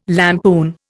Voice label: "lampoon", Indian